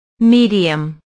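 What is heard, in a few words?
"medium", English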